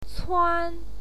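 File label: "cuan", Mandarin Chinese, first tone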